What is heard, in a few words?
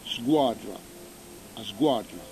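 "squadra", Corsican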